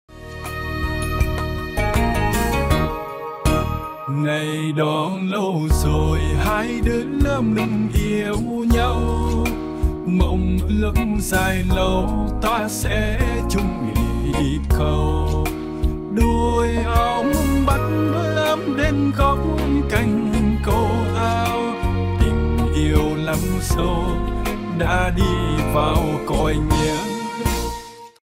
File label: Train voice